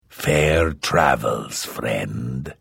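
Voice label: Hello, Vo; Morrowind GOTY GOG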